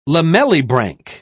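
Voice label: "lamellibranch", British English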